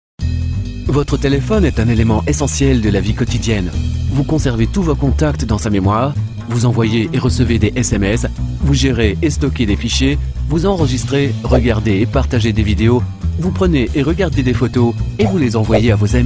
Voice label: French e-learning